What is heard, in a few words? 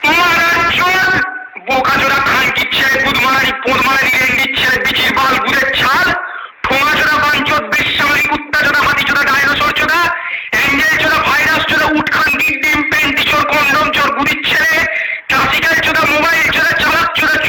bengali gaali